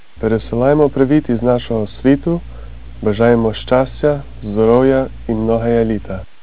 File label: ukrainian; Golden Record